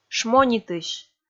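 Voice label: "шмонитысь", Komi, female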